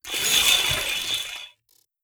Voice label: robot plantoid greetings; Stellaris; synthetic dawn